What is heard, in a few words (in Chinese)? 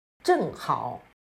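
"正好", Chinese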